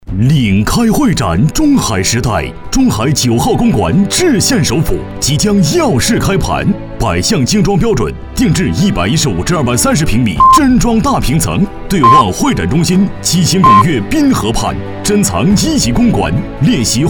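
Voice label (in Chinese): 男 房产（浑厚大气）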